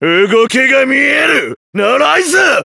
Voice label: Voice Stand Up; Prosciutto